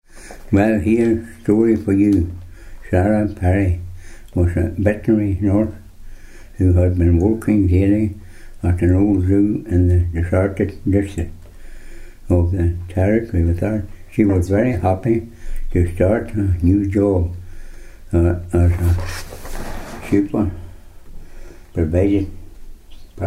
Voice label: passage, dialects ni